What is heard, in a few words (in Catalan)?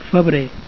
"febrer", Catalan